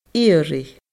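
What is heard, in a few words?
"iarraidh", Scottish Gaelic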